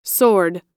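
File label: "soared", American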